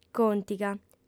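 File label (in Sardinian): "còntiga", female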